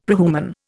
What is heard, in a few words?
"prehuman", Indian; Dictionary